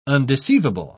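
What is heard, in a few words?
"undeceivable", British English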